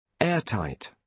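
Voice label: "airtight", British English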